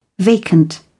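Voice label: "vacant", British English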